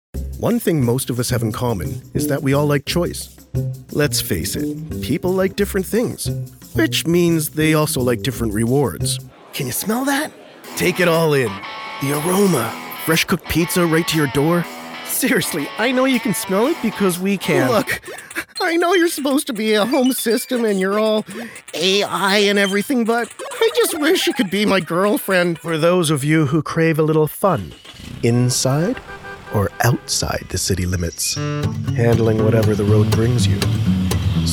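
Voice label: Commercial and Charcter, voice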